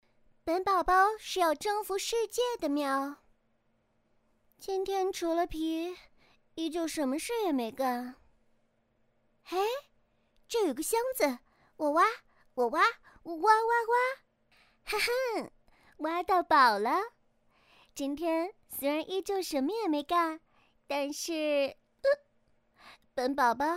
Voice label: 女 角色模仿; 喵大人

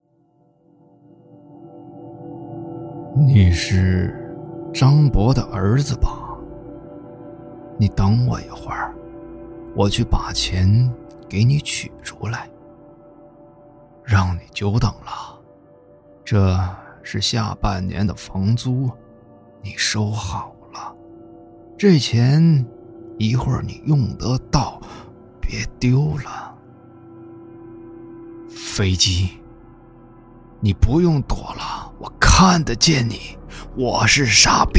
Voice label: 男, 惊悚小说角色

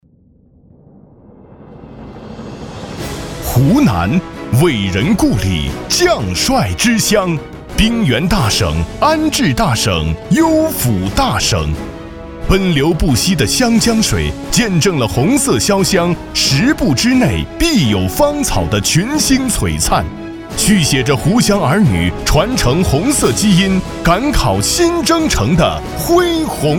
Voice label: 男 宣传片 大气铿锵激昂 强劲 军 启航

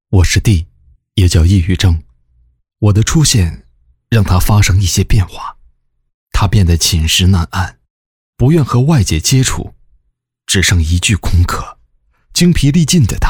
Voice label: 男 公益宣传片 抑郁症公益片原声

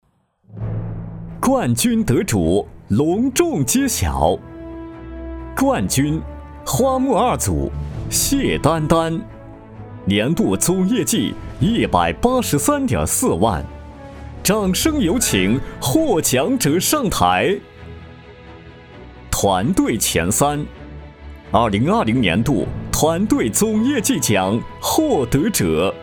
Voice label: 男 企业年终颁奖

